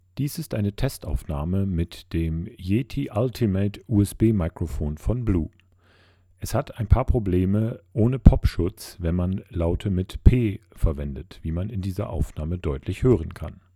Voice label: Blue Yeti Test Cardiod Voice